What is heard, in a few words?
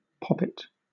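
"poppet", British English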